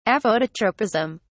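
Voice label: "aphototropism", British English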